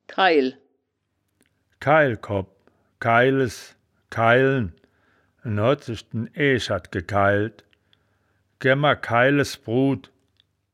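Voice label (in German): "kail", wittlicher woerterbuch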